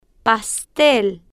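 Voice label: "pastel", Spanish, female, slow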